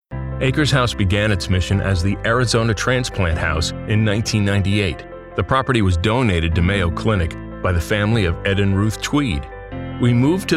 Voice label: Business Narration